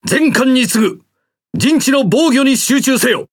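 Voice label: Speech Quick Commands Ally Base, Japanese; World Of Warships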